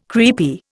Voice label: "creepy", Indian

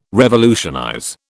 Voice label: "revolutionize", British

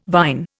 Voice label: "vine", Indian

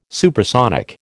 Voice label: "supersonic", American

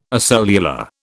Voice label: "acellular", British

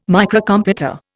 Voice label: "Microcomputer", Indian

